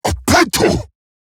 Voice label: Surprised Eximus Corpus Crewman; Warframe; Battle Barks

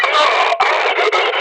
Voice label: LasrianEnemyA Pain; Warframe; Battle Barks

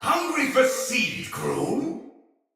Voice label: See Player Shout, Battle; Thawtide Hunter; en

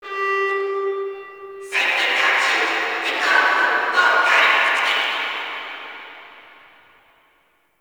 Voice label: Guild Announcer; Warframe

